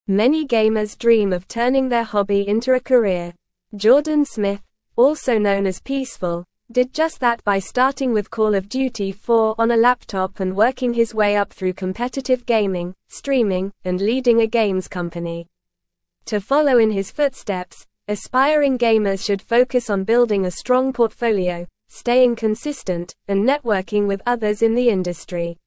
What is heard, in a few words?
English Newsroom Upper Intermediate NORMAL Reading; Turning Gaming Passion into Career Success Key Strategies